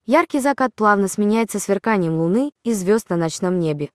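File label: Russian, Russia; iOS Sounds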